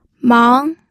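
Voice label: "mang", Mandarin Chinese, second tone; pinyin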